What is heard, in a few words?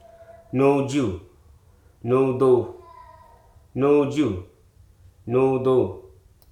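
"hurt", English, Hruso dictionary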